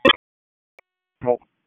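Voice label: Police Southwest District Dispatch, p25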